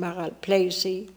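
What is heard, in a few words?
"Maralpleicie", pronunciations; Fourth Way; Beelzebub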